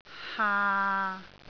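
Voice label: "haa6", Cantonese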